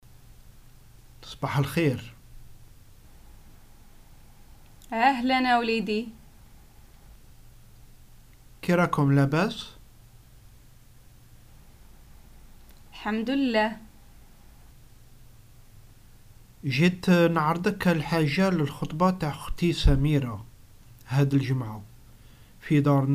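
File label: accept invitation, algerian arabic dialogues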